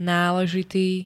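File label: "náležitý", Slovak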